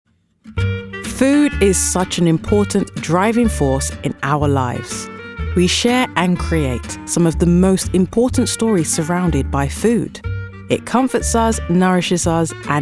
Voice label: Narration, voice-over